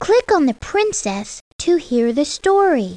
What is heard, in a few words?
princess read